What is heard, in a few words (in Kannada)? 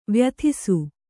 "ವ್ಯಥಿಸು", Kannada